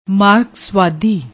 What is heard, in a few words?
"maarkasvaadi", Punjabi; ਮਾਰਕਸਵਾਦੀ